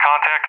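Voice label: "contact", English; Tanker; DCS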